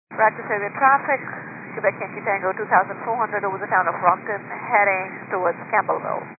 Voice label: 122.925 MHz; scanner radio